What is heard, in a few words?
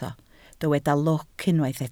"lwc", Welsh